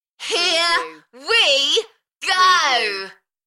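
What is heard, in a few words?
British female Here we go Enthusiastic; beanstalk audio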